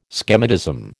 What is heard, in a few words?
"schematism", American